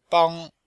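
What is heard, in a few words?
"bang1", Chinese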